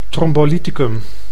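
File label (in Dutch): "thrombolyticum", Dutch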